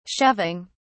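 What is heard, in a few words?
"shoving", British English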